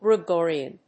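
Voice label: "Gregorian", English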